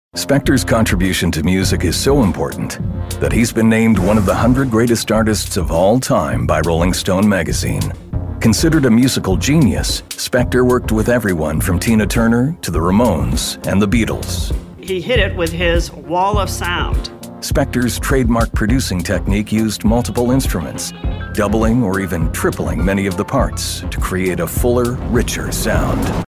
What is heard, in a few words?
english american documentaries; Voz masculina "neutral en inglés norteamericano" (sin acento regional).